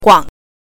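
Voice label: "guang", Mandarin Chinese pinyin, neutral tone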